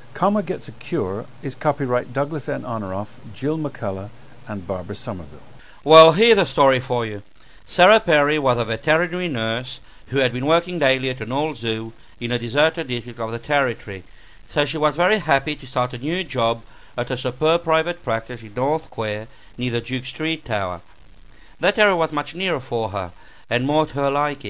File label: australia